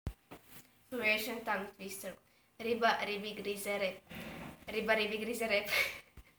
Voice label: Croatian